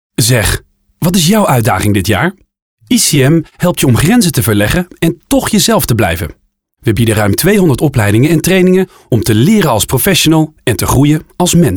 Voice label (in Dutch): radiocommercial; ICM